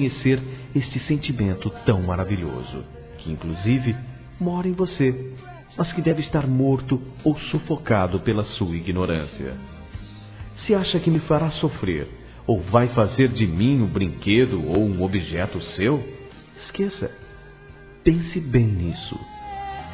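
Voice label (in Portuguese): BRINCAR DE AMOR, Masculino; Telemensagens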